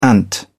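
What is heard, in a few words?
"ant", British English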